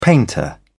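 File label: "painter", British English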